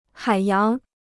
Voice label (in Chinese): "海洋", Chinese